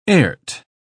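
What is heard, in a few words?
"airt", British English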